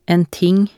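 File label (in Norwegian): "en ting", Norwegian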